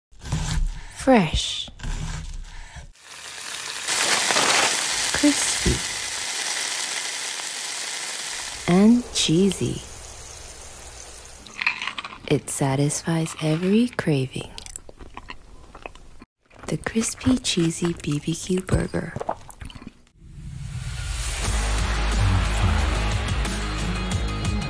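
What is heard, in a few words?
voice over Guide; BK CCBBQ Burger 2019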